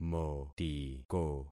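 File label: "motiko", concatenated syllables; Affixing experiment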